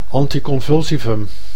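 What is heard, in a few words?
"anticonvulsivum", Dutch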